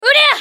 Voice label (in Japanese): ソルジャー女 攻撃短; リボルドウェソルジャー